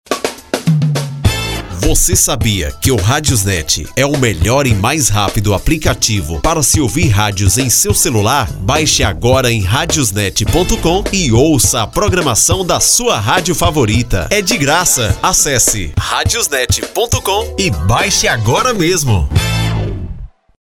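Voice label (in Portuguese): Melhor mais rapido app longo masculino; RadiosNet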